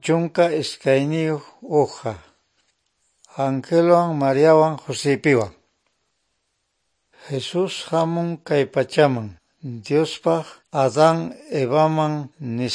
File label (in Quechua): good news quechua norte de bolivia apolo; bible